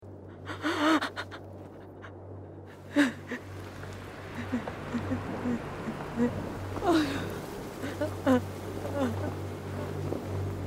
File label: emotion action; tales of esperia